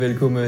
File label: welcome alsatian